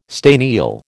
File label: "staniel", American